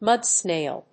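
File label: "mud snail", English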